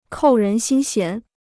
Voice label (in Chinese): "扣人心弦", Chinese